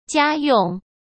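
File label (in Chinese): "家用", Mandarin Chinese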